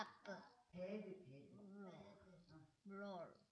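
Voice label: "rub", spoken word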